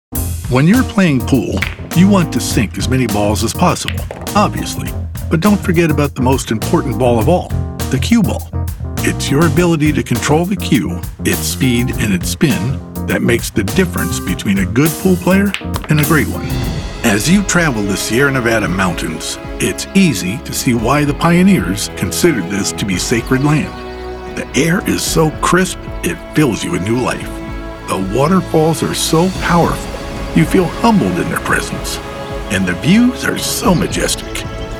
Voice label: Narration Corporate Voiceover